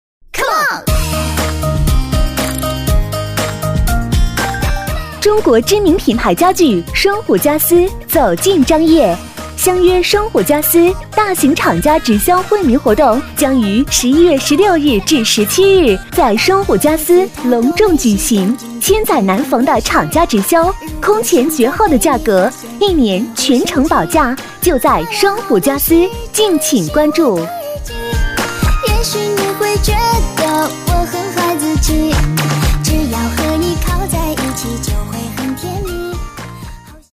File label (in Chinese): 女 促销, 国语女声配音, 磁性; 双虎家私